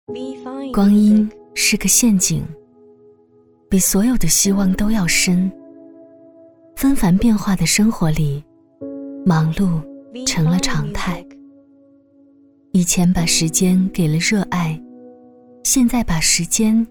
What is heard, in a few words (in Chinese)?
女, 旁白 先抑后扬